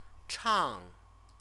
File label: "chang", Chinese